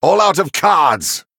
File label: Male Venthyr No Cards